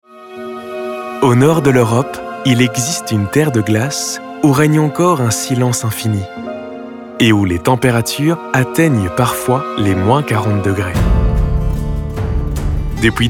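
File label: Belgian French, Narration; GoLocalise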